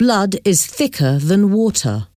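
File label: "blood is thicker than water", English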